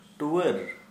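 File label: Santali male orphaned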